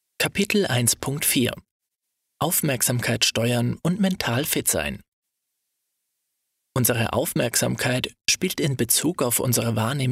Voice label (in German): Hoerbuch Business